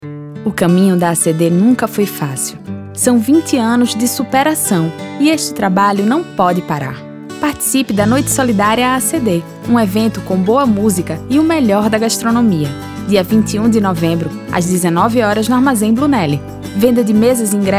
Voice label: Spot; AACD 20 Anos

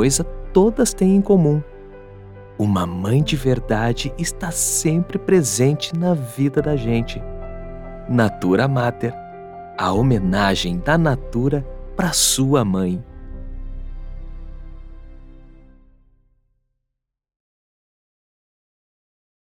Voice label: voz carinhosa, dia das mães

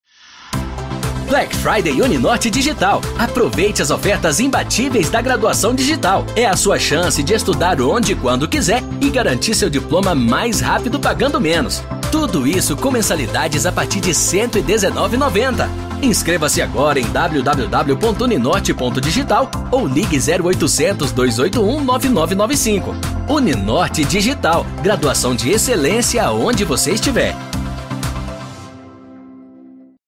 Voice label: Locucao spot; Uninort

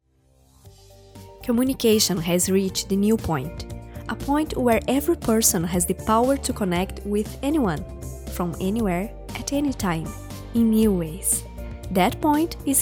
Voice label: Locução em inglês

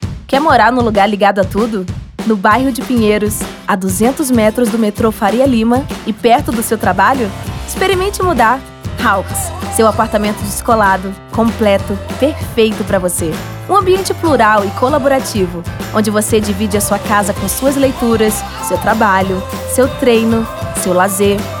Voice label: Jovem conversada; Houx

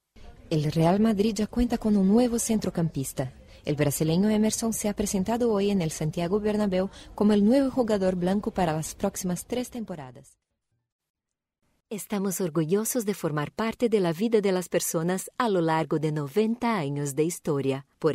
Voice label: ESPANHOL

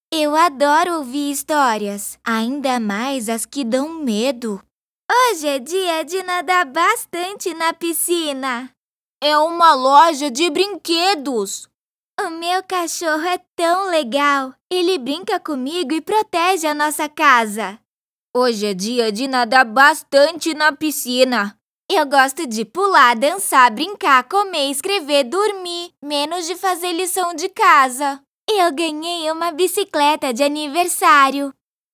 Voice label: Vozes Infantis

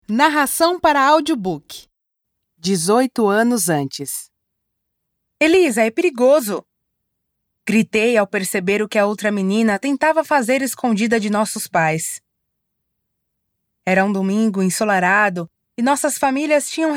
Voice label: Narracao para Audiobook

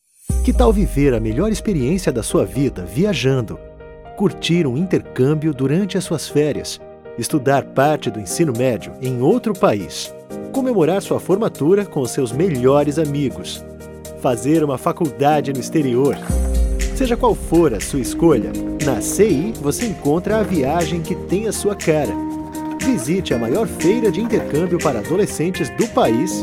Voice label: VOZ CONVERSADA; CI INTERCAMBIO

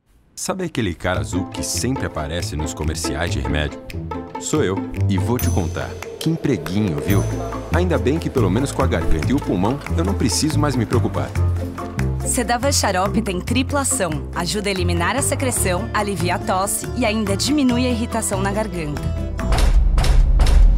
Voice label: jovem descontraida; SEDAVAN

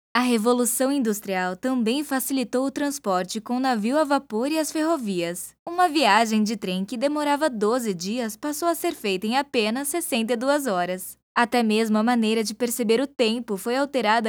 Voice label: Video Aula

